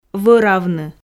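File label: "вӧравны", Komi, female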